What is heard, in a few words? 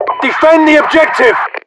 announcer axis flag cap